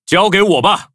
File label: loading, zh-hant; cookie run